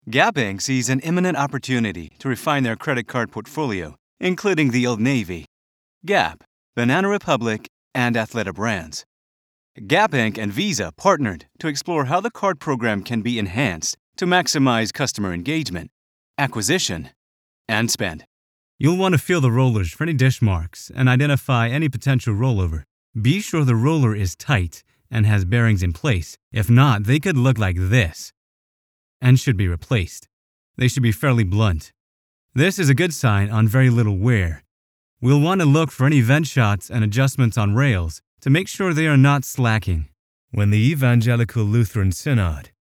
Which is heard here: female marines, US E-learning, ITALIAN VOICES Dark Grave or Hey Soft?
US E-learning